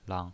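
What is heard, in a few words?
"lang", pinyin, Mandarin Chinese, neutral tone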